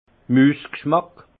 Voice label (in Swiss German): "mus gschmack", alsace; vin; souris goût de